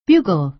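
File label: "bugle", American English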